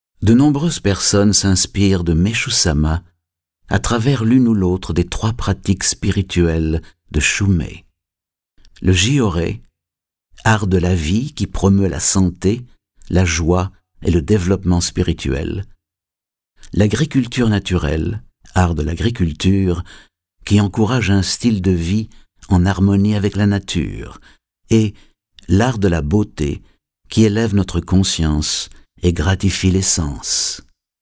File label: Narration French Male